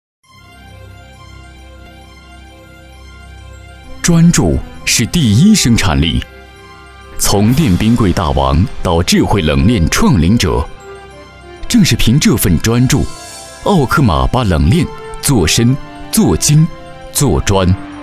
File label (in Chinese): 男 大气专题 公司; 澳柯玛公司